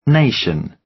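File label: "nation", British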